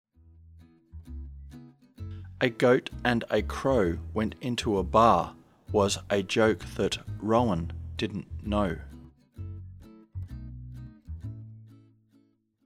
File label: Word Sentence OW slow, diphthong əʊ as in home; spelling variation ow